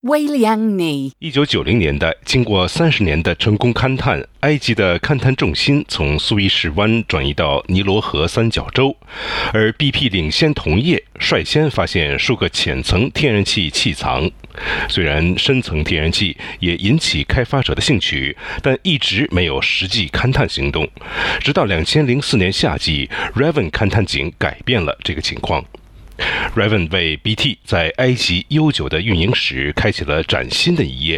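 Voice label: Mandarin Chinese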